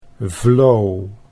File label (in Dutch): "vlo", Dutch